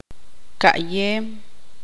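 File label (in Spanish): "mirar", Tz'utujil, female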